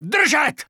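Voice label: Czech retreat; 整队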